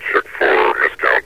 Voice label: check for miscount, metrocop zombie; scmod